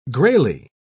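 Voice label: "grayly", British English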